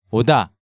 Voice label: "Oda", Hangeul sounds; DigitalSoju